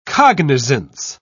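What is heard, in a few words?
"cognisance", British English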